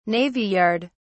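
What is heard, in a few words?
"navy yard", British English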